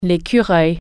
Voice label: "squirrel", French